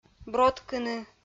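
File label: "броткыны", Komi-Zyrian, female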